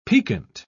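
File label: "picquet", British English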